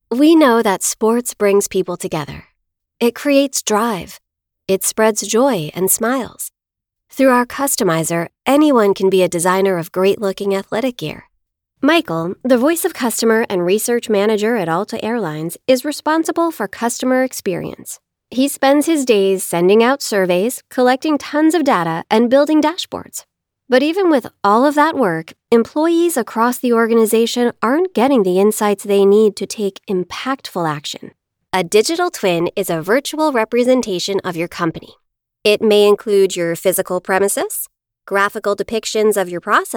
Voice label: US English explainer

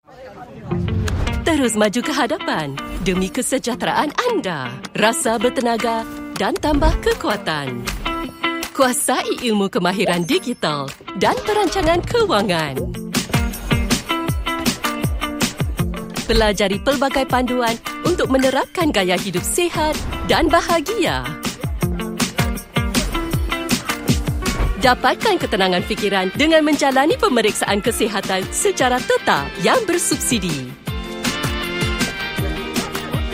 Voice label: Malay; Live Well Age Well

